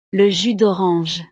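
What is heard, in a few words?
"le jus d orange", French; snacks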